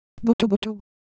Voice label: Ewe Sound, ideophones